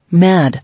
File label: "Mad", English